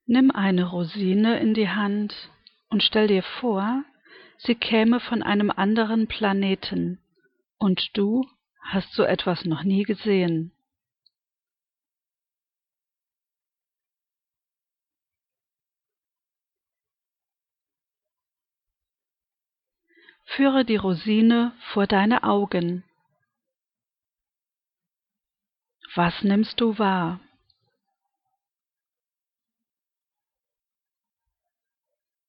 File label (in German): Rosinen Meditation